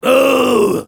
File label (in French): Soldier jeers, fr